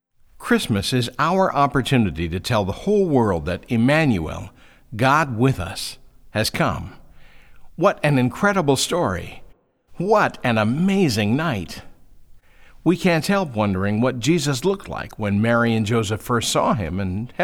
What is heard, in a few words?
narration